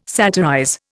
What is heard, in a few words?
"satirize", Indian